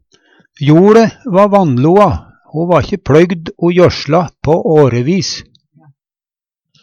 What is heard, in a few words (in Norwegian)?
"vanloe", Numedalsmål; JoLe va vanloa; ikkje pLøygd o jøsla på fLeire år.